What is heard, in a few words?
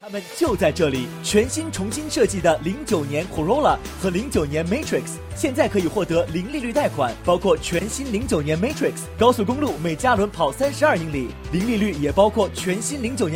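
Mandarin Chinese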